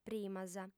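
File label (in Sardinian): "primas", female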